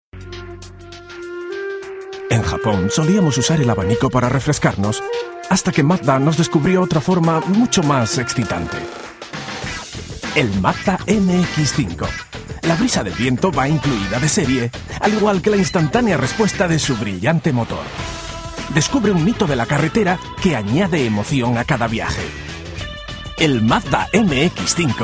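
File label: Spanish European General; GoLocalise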